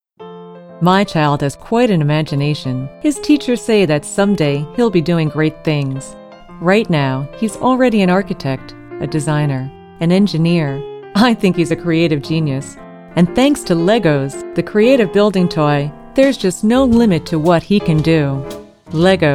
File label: Commercial, voice-overs; A variety of voice-over services; voice-overs from her own studio; A Matter of Voice